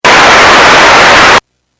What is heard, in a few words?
EMS, radio